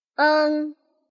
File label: "eng", pinyin